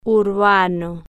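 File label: "urbano", Spanish, female, slow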